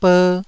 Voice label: "ber1", taiwanese

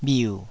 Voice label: "bhiu3", taiwanese Syllables

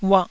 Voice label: "uah2", taiwanese

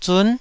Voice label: "zun9", Taiwanese

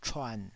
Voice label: "chuan3", taiwanese

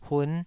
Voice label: "hun5", taiwanese syllable

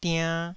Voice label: "diann2", Taiwanese

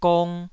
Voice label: "gong2", taiwanese syllable

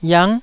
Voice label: "yiang9", Taiwanese

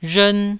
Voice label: "rhern1", taiwanese

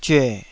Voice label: "zyue3", taiwanese

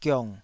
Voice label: "giong3", Taiwanese syllable

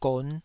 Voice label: "gon5", Taiwanese syllable

